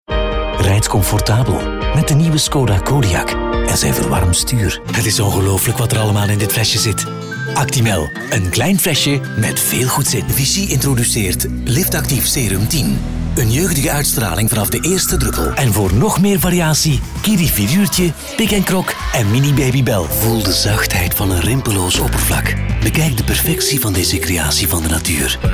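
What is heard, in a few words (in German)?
Flemish, Dynamisch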